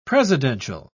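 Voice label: "presidential", British English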